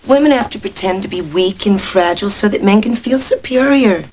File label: Women Men